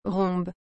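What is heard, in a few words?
"rhombe", French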